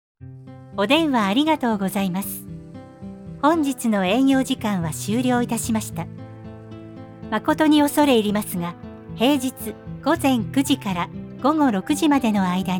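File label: japanese interactive voice response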